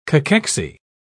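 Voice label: "cachexy", British English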